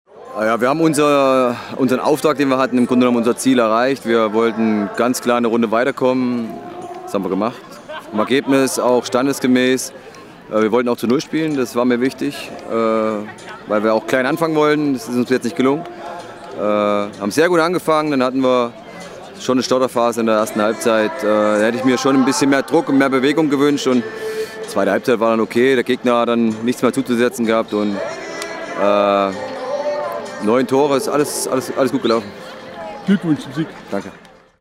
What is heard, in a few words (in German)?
Trainerstimme; Muelhausen-Jena